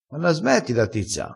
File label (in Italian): "attizza", crespinese